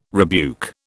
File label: "rebuke", British